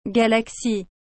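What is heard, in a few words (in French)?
"galaxie", French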